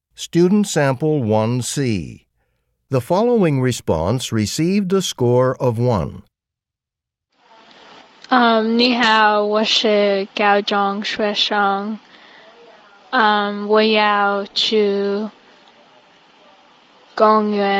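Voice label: chinese conversation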